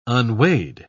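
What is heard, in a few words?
"unweighed", English, British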